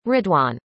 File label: "ridhwan", arabic; indonesian boy names